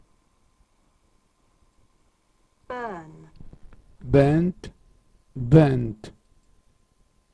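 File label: "burn", irregular verbs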